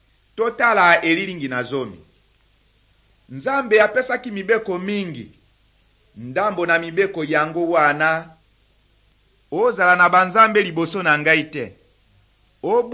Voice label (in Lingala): good news lingala west, drama; bible; rdc